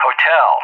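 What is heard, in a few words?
"Hotel" English, speech; JTAC; Alphabet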